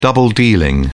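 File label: "double-dealing", British English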